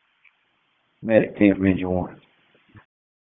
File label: PS TAC, p25; wake